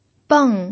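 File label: "beng", pinyin, fourth tone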